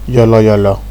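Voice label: "yoloyolo", Nigerian Pidgin, male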